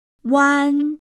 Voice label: "uan", pinyin; PinyinChart

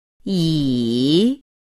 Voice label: "ǐ", pinyin; PinyinChart

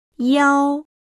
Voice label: "iao", pinyin; PinyinChart

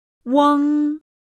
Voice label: "ueng", Pinyin; PinyinChart

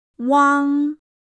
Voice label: "uang", Pinyin; PinyinChart

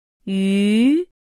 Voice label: "ǘ", pinyin; PinyinChart

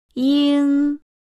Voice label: "ing", Pinyin; PinyinChart

